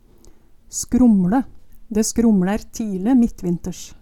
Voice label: skromLe, Numedalsmål

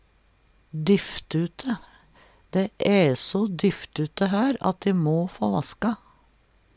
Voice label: "dyfftute", Numedalsmål

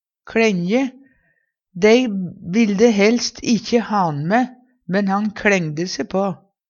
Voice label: kLengje, Numedalsmål; Dei vilde helst ikkje ha'n mæ, men han kLængde se på.

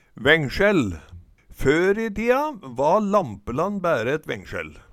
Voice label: vengsjell, Numedalsmål; Vengsjill vert og bruka.

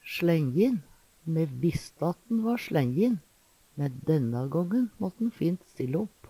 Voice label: slenjin, Numedalsmål; Me visste at han va sLenjin, men denna gången mått`n fint stille opp.

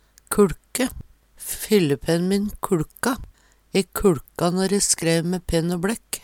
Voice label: kulke, Numedalsmål

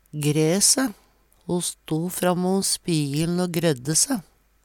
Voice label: grae se, Numedalsmål; Uttrykk

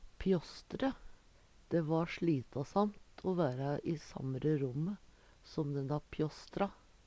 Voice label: pjasstre, Numedalsmål; Eksempel på bruk Dæ va sLitasamt o væra i samre romme som denna pjåsstra.

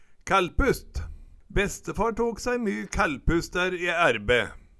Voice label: kællpust, Numedalsmål

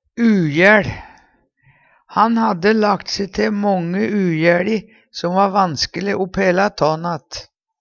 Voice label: ujæL, Numedalsmål

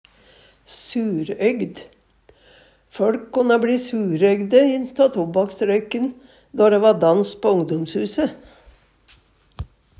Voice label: surøygd, Numedalsmål

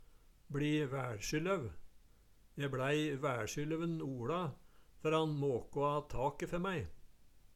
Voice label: "bLi væLsjylløv", Numedalsmål; Eksempel på bruk Je bLæi væLsjylløv nOLa fer han måkå a take fer mæi

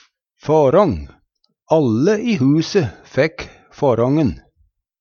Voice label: fårång, Numedalsmål; Alle i huse fekk fårången.; Substantiv hankjønn

